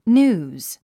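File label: "news", English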